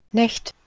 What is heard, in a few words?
"neht", Anglo-Saxon